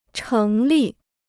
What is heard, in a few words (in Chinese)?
"成立", Chinese